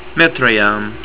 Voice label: SUBWAY STAT, estonian